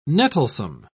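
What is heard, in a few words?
"nettlesome", British English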